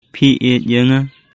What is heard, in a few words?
Khasi; Do you love me?; Phi ieid ia nga?